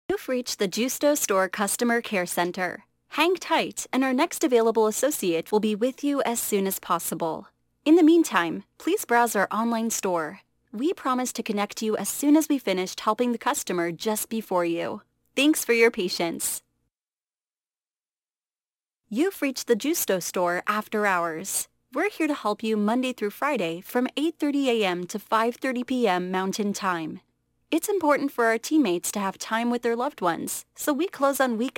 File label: voice female